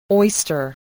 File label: "oyster", English voice